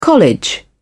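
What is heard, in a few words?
"college", British English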